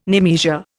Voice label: "nemesia", Indian

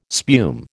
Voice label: "spume", American

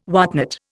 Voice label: "whatnot", Indian

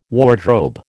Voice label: "wardrobe", American

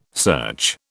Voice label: "search", British

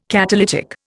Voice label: "catalytic", Indian

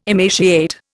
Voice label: "emaciate", Indian

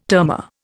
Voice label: "termor", Indian

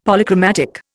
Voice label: "Polychromatic", Indian; Dictionary

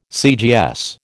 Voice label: "CGS", American

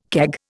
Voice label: "keg", Indian

